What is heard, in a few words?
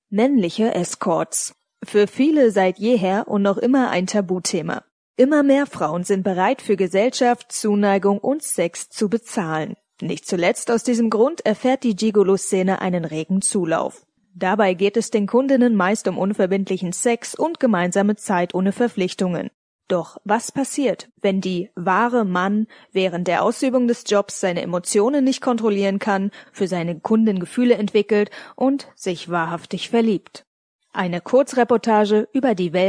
GERMAN